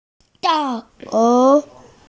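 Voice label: "da4lou2", Mandarin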